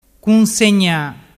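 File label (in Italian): "cunsegna", Vernazzese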